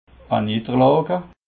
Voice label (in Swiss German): "niederlage", alsace